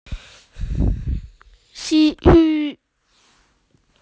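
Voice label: "cure", Mandarin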